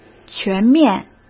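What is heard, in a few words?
"全面", Mandarin Chinese; chinese flashcards; hsk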